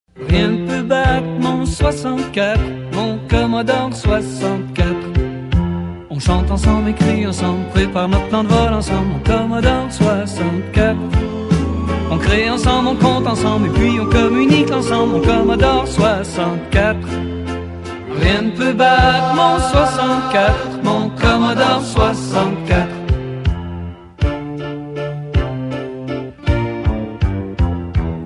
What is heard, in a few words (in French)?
C64 French